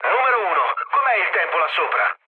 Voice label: chat, speech it; War Thunder